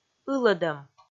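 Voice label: "ылӧдӧм", Komi, female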